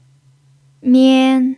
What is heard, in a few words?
"mian1", chinese pinyin